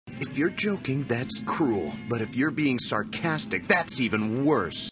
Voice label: Sarcastic (Eng); English; you're joking, that's cruel. But if you're being sarcastic, that's even / worse!